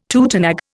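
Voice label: "tutenag", Indian English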